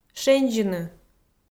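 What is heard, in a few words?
"шензьыны", Komi, female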